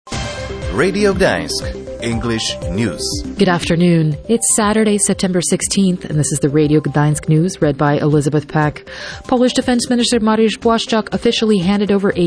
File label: English News; Polish Defense Minister unveils air defense system in northern Poland; President Duda extends greetings for Jewish New Year; Morawiecki announces extension of ban on import of Ukrainian agricultural products